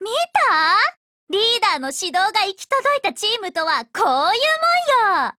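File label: win, Japanese; 少女前线:CMR-30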